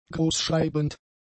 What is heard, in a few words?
"großschreibend", German; adjectives